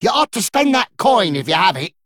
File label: Male Dredger Idle